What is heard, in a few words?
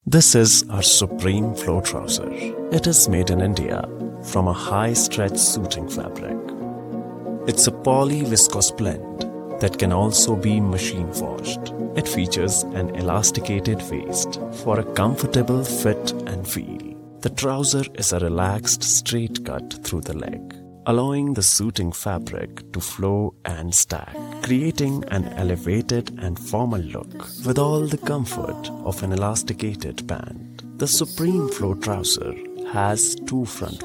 en ad soft